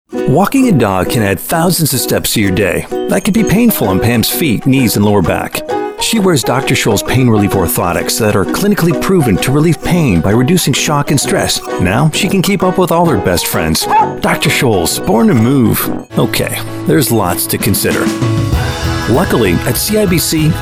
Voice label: Commercial